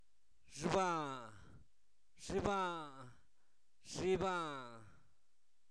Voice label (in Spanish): cola, Zapoteco, santo domingo albarradas; objetos inanimados